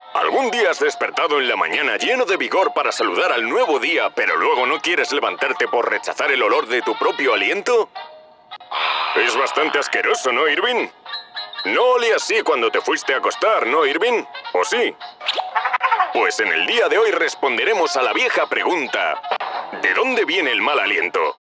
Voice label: acting Cartoon Old Voicetalent Age 30 50; form 50s